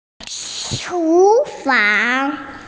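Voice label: "kitchen", Mandarin Chinese, female; chu2fang2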